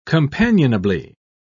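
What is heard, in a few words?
"companionably", British English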